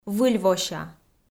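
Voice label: "выльвося", Komi, female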